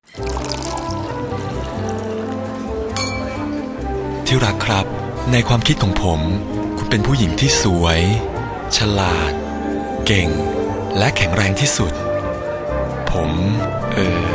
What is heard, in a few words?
spot radio; คุณพ่อขอร้อง